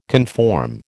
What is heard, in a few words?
"conform", American